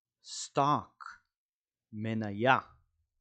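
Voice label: "stock" English, "מניה" Hebrew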